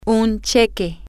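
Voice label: check, Spanish, female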